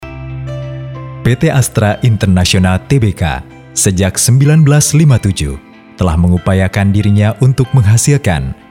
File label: INDONESIA FORMAL